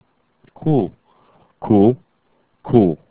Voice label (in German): "q", German alphabet